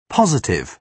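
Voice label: "positive", British English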